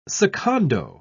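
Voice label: "secondo", British English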